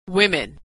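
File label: "women", American English; vowel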